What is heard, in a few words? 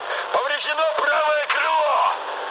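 right wing, mood high, Russian; War Thunder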